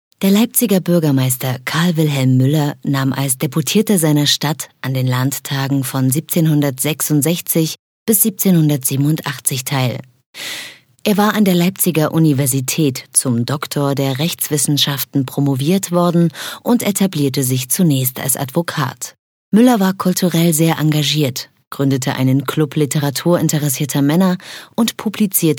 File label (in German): Audioguide; saechsischer landtag; Muttersprache Deutsch